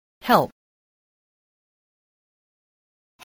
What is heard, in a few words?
"help", English, spelling